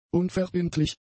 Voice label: "unverbindlich", German; adjectives